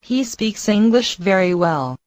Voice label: Mandarin Conversation

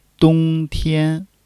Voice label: "dong1 tian1", Chinese

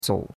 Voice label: "zou3", chinois; Cours-de-Chinois

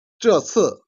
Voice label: "jian", Chinese

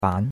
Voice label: "ban2", chinois; Cours de Chinois

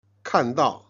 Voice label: "jian", Chinese

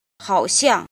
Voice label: "jin", Chinese

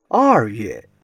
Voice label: "er4 yue4", Chinese

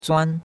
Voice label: "zuan1", chinois; Cours-de-Chinois

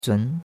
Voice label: "zun2", chinois; Cours-de-Chinois

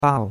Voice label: "bao4", chinois; Cours de Chinois

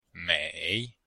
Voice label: "mei3", Chinese

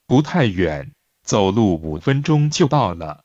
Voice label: Mandarin Conversation

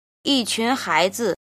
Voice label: "jin", Chinese

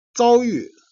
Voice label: "jian", Chinese

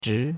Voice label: "zhi2", chinois; Cours-de-Chinois

